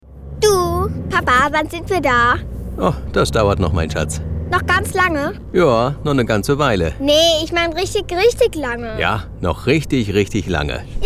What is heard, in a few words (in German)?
kinderstimme werbung autofahren